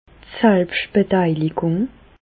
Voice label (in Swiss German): "selbstbeteiligung", Alsace, Bas Rhin; medecine; ticket modérateur